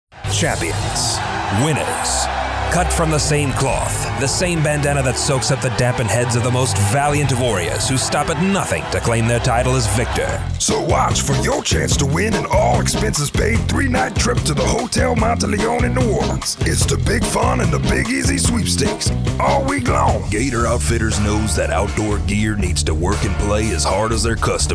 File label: Character